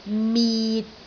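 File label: "mit6", Cantonese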